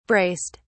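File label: "braced", English, British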